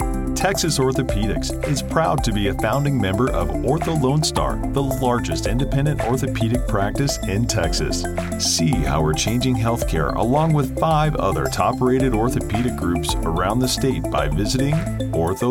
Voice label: ivr